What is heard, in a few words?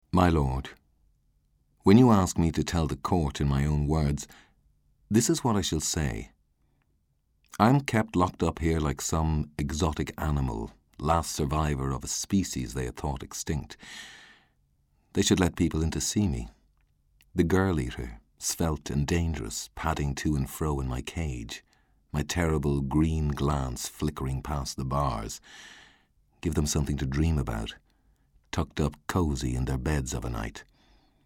Audiobook